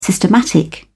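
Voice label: "systematic", British English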